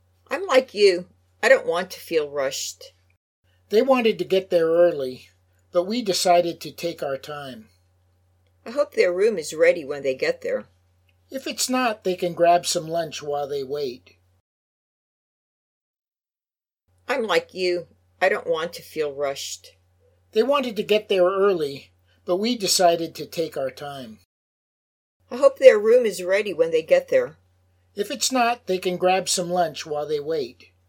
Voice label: Natural Dialog; Lesson 3